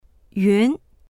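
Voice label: "yun2", Chinese; 云; 雲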